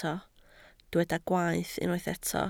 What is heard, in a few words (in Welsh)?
"gwaeth", Welsh